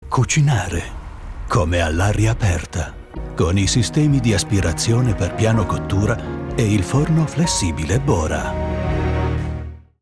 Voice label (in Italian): Spot televisivo; BORA; LOFT VOICES